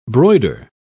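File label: "broider", British English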